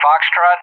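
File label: "Foxtrot", English; JTAC; Alphabet; DCS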